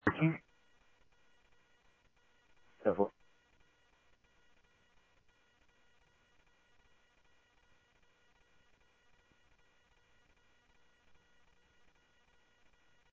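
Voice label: Mesa Police Department, scanner audio; Mesa Simulcast; MESA PD 1 Fiesta MesaPoliceDep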